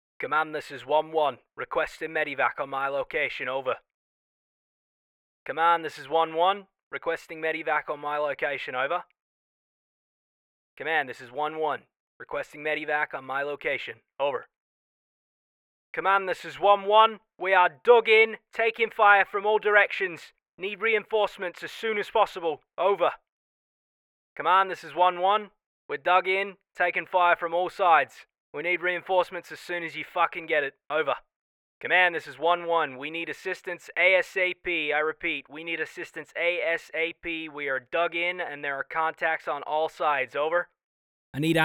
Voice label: Military British Aus US

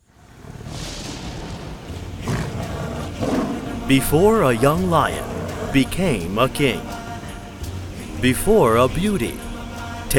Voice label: Trailer

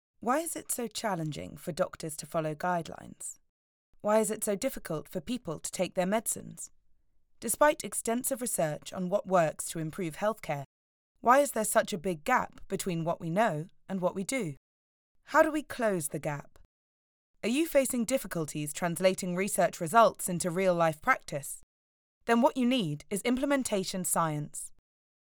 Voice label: Corporate

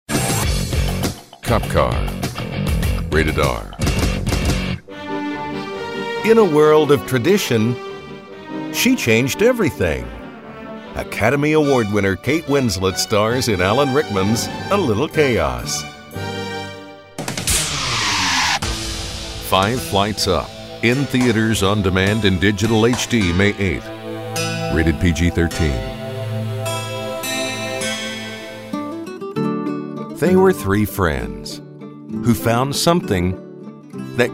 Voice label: Movie Trailer

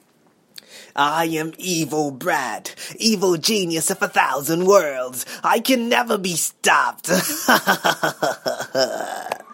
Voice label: Evil

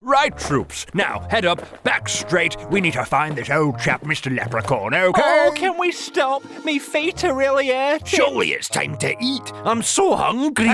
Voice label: Animation

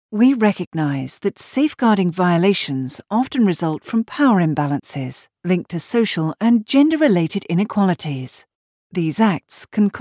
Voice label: British eLearning Project

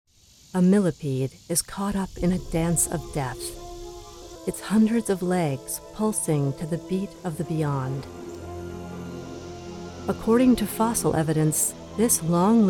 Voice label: Documentary; Millipede

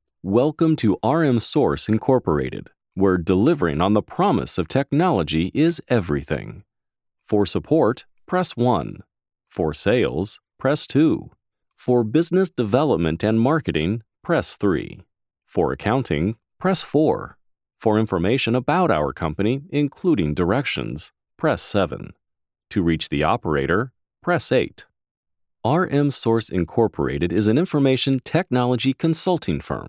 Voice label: IVR sample

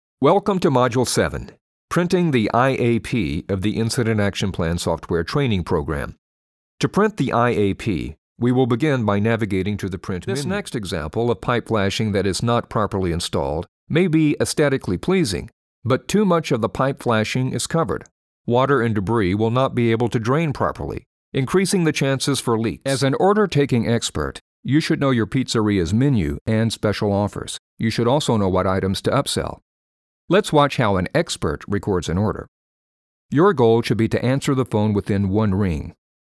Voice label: eLearning

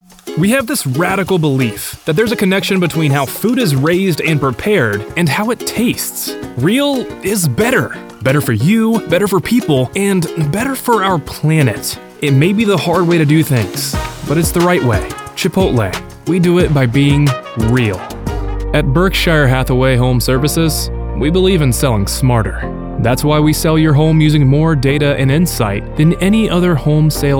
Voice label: Straight Commercial; Chipotle; American

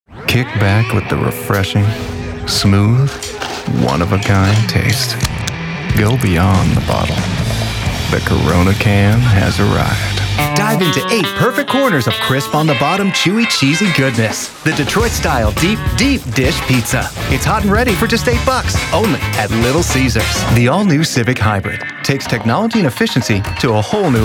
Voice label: COMMERCIALS

